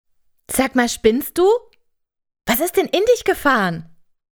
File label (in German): Hoerprobe wuetend